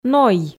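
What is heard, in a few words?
"но-й", Komi, female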